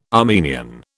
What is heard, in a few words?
"Armenian", British